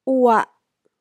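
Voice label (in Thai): "อัวะ", Thai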